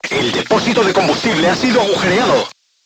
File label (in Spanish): voice message fuel tank damaged, spanish; crew tanks